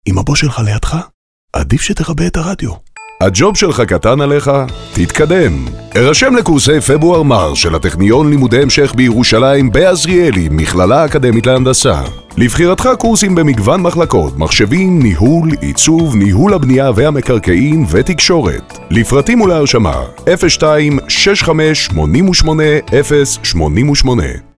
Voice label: hebrew promos